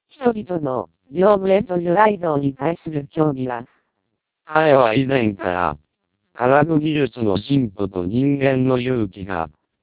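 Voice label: short, TWELP 300 bps Vocoder; Japanese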